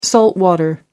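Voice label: "saltwater", British English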